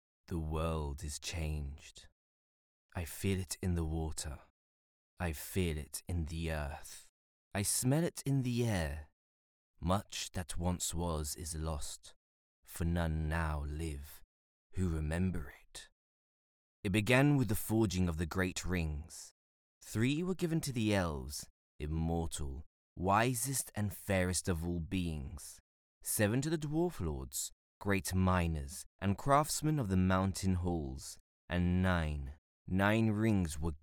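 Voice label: French, Accented English; voice demos; GoLocalise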